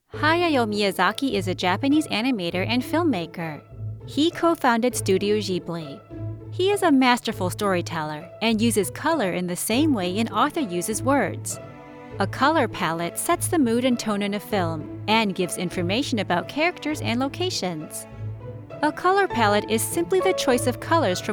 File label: with music; Ghibli